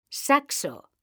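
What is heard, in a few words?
"saxo", Spanish, female; mekanta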